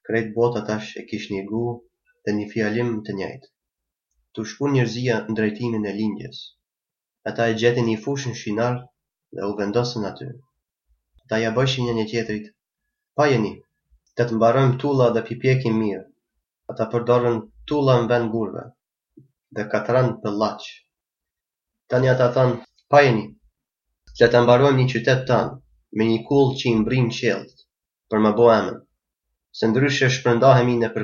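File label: Albanian Gheg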